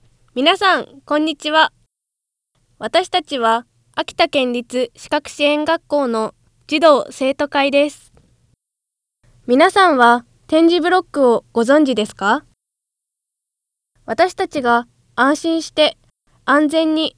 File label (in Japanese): 駅放送アナウンス